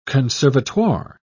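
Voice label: "conservatoire", English, British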